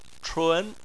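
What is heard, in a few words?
"chun" second tone, Chinese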